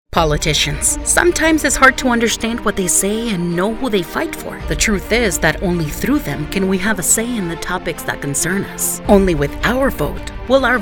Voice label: English Political

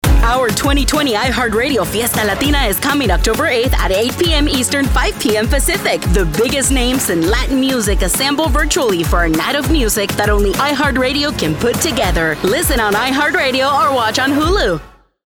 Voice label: Promo, ENGLISH; iHR; Fiesta Latina; Remnant

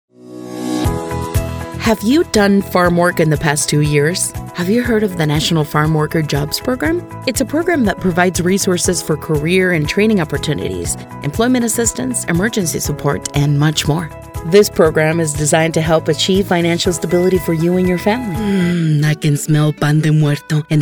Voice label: English Commerical

